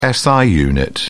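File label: "si unit", British English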